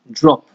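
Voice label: "drop", Nigerian Pidgin, male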